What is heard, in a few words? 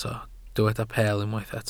"peel", Welsh